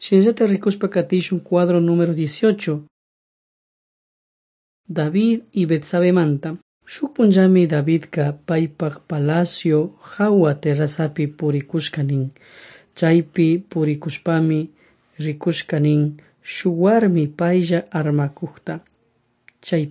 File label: servants of god quichua chimborazo